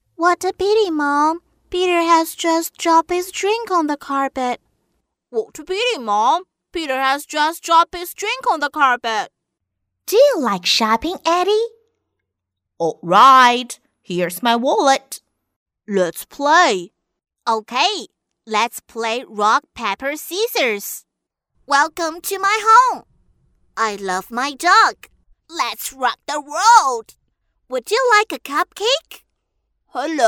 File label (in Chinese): 女 英文 童声 男女童